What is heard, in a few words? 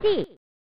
"Si!" (Female); pro mahjong kiwame; snes